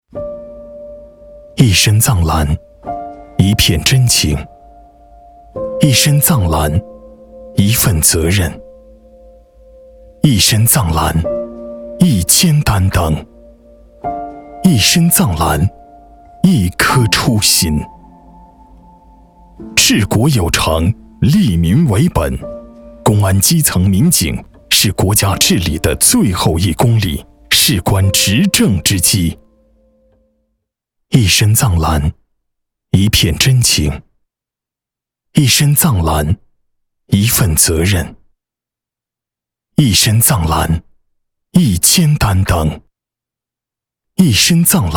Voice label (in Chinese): 男 走心; 一身藏蓝